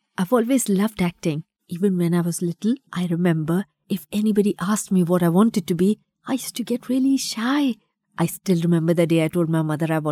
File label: female indian voice over narrative